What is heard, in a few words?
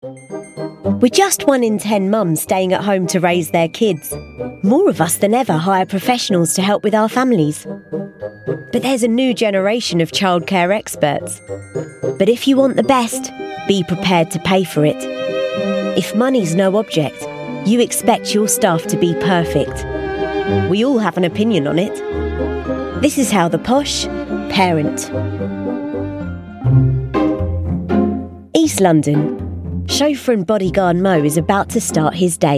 documentary